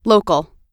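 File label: "local", US English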